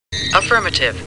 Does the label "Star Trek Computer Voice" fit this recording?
Yes